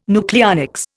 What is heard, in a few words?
"nucleonics", Indian